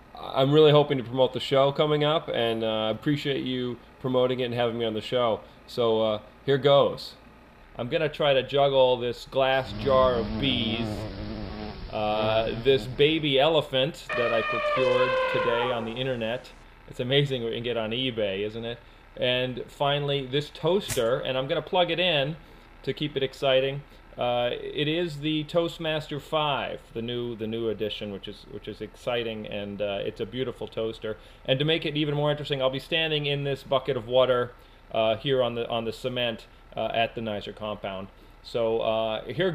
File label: Radio Ad; Nizer; BEES